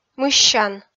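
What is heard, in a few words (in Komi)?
"мыссян", Komi, female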